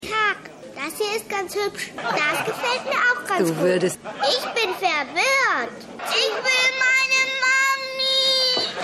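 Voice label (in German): Mädchen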